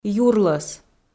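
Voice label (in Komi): "юрлӧс" Komi, female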